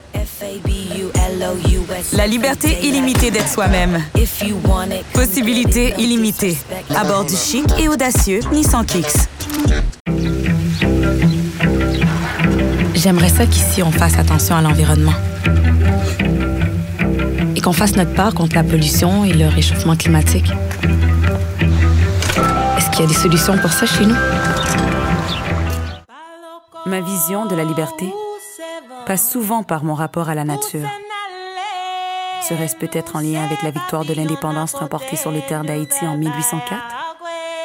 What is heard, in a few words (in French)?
demo voix